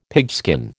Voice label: "pigskin", American

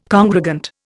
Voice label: "congregant", Indian

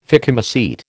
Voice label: "phycomycete", American

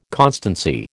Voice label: "constancy", American

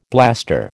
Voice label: "blaster", American

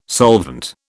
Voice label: "solvent", British

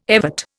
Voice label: "evert", Indian

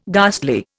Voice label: "ghastly", Indian

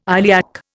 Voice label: "iliac", Indian

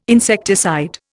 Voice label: "insecticide", Indian

